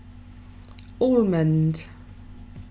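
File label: "almond", British, female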